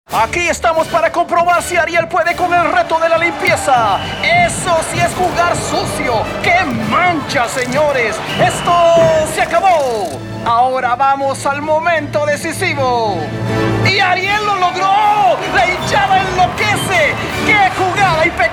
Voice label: Soccer Narration

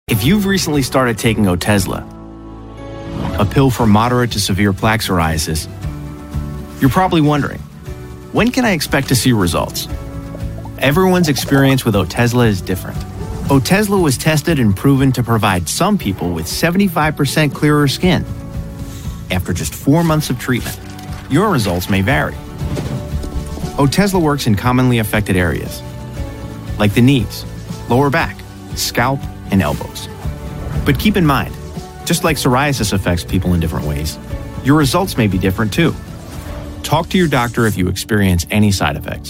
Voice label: Pharma Explainer; Otezla

